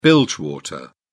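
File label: "bilge water", British English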